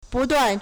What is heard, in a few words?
"bu4duan4", Chinese